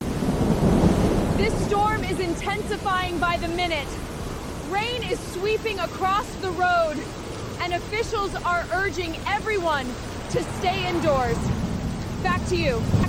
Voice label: storm reporter original